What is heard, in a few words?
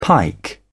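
"pike", British English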